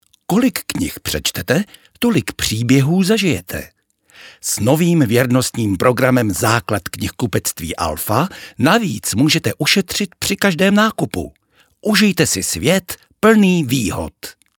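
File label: Czech, male, commercial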